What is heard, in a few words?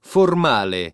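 "formale", Italian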